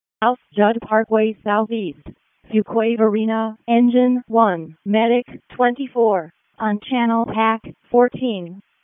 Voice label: WC FD ALERT, p25; wake p25